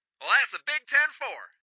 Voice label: Radio Chatter "That's a big 10-4!"